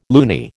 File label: "loony", American